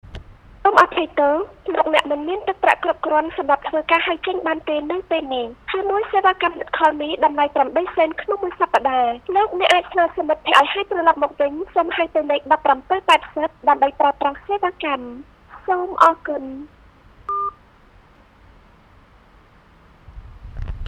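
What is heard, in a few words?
voice narrator sharp tone woman phone; 2019; Cambodia phnom penh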